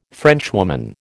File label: "Frenchwoman", American